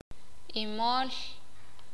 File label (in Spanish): "conejo", Sakapulteko